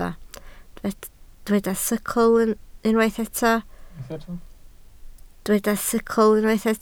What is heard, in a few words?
"sicl", Welsh